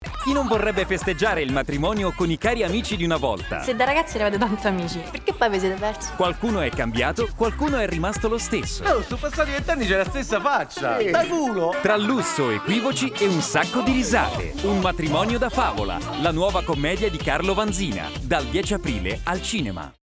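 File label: Spot, Italian male; Un Matrimonio Da Favola; Distribution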